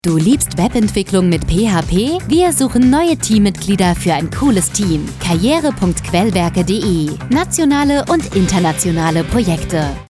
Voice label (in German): Funkspot; Quellwerke GmbH